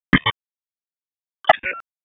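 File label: Police Spec Ops Tac, radio; Raleigh; Wake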